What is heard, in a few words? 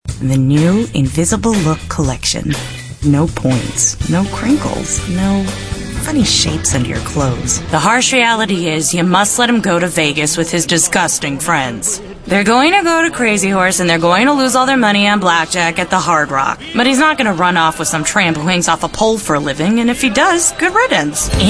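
female, late 20s mid 30s, contemporary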